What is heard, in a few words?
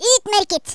"brilliant", Norwegian; Worms Armageddon